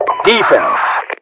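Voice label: Russian defense, voiceovers; english; mpvoice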